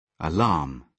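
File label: "alarm", British English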